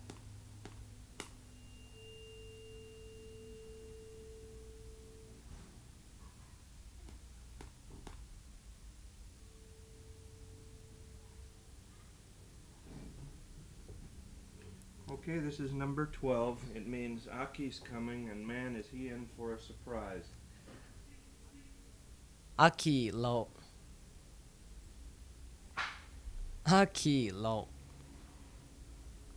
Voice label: Lisu Intonation